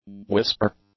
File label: "whisper", English; nouns